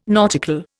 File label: "nautical", Indian